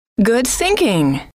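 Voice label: good thinking, female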